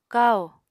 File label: "kahò", Apatani